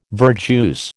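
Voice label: "verjuice", American English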